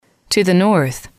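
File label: to the north, English, female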